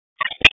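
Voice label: Raleigh Police Northeast District Dispatch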